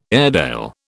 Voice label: "Airedale", British